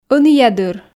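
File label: "ӧніядыр", Komi, female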